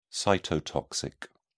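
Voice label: "cytotoxic", British English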